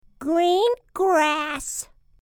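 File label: "green grass", English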